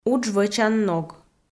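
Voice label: "удж вӧчан ног", Komi, female; audio dict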